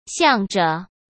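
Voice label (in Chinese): "向着", Mandarin Chinese; hsk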